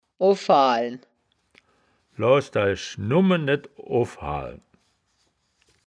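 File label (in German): "owhaalen", Wittlicher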